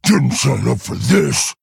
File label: Male Quillboar Play